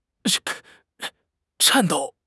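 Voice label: "颤抖", Mandarin Chinese; HSK; HSK6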